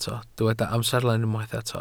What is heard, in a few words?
"amserlen", Welsh